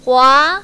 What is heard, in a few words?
"hua2", mandarin, female